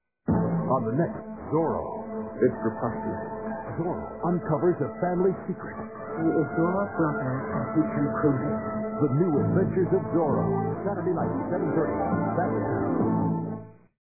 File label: commercial